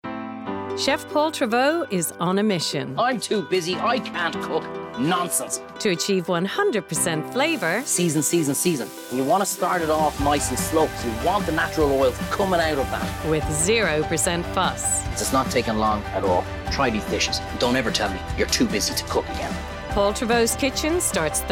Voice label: Promo

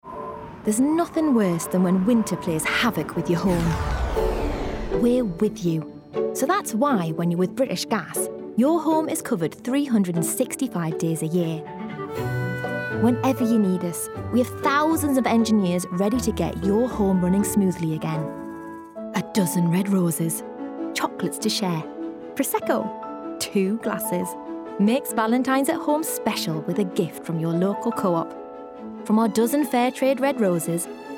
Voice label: Commercials Montage; Actress

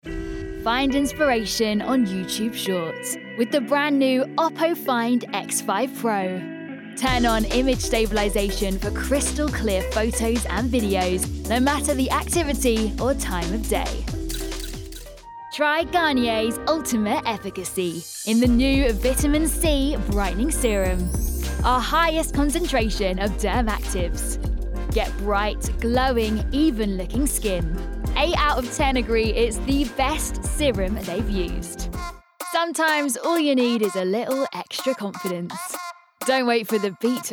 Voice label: Commercials Montage; STYLE: Clear

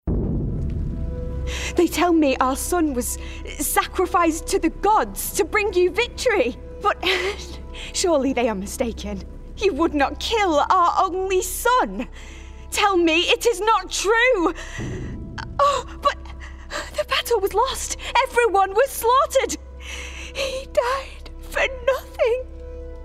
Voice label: Gaming Mother; Grieving Mother – Northern

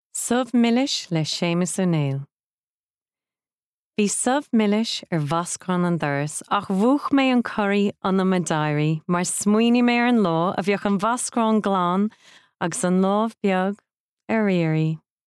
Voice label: Irish

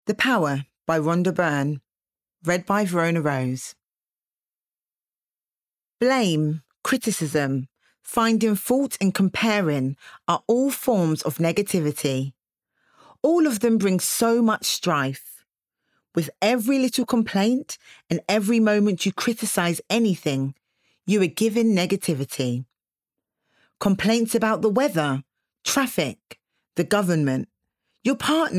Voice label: Bookread; The Power

